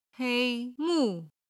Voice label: "hei1 mu4", Chinese

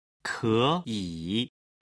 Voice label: "keyi na", chinese

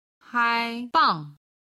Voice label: "haibang", chinese

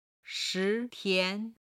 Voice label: "chi2 tian2", Chinese

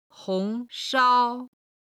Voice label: "hong shao", Chinese

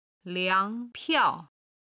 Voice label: "liang2 piao4", Chinese

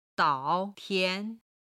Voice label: "dao tian", chinese

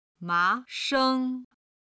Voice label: "ma2 sheng1", Chinese

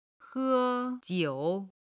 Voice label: "he1jiu3", Chinese

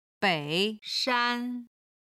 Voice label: "bei3 shan1", Chinese

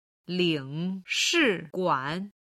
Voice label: "lingshiguan", chinese

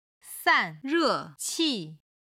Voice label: "san4re4qi4", Chinese

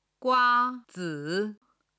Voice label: "gua1zi3", chinese

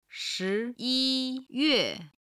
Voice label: "shi2yi1yue4", Chinese

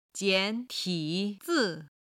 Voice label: "jian3 ti3 zi4", Chinese

